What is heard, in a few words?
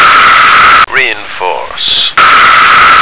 "reinforce", American